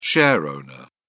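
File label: "shareowner", British English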